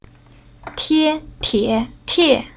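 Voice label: "Tie", Chinese syllable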